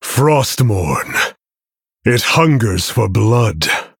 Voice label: Male Human In Game Boss Plays Card